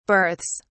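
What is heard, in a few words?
"berths", British English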